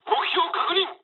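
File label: near waypoint mood low, speech japanese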